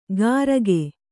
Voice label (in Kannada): "ಕರಕೆ", kannada